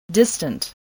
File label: "distant", spelling